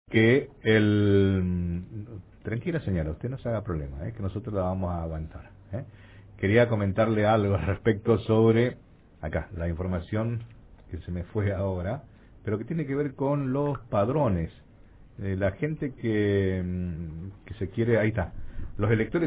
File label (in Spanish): debate